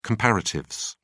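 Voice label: "comparatives", British English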